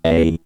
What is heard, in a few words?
Vocoder Eight